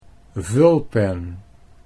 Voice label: "vulpen", Dutch